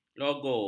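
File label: "logo", Malay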